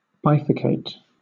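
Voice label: "bifurcate", British English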